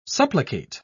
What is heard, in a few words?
"supplicate", en uk